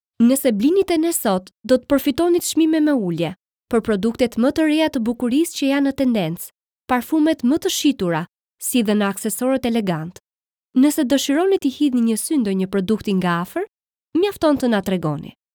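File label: Albanian, female, commercial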